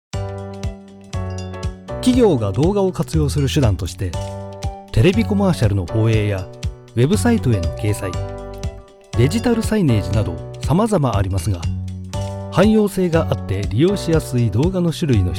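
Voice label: Japanese, male, elearning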